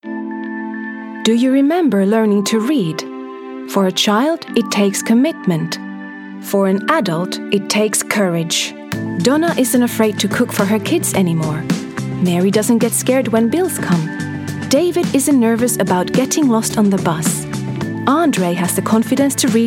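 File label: Finnish English, female, narration; accent of finland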